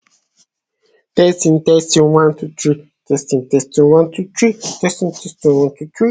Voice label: voice message